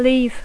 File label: "leave", English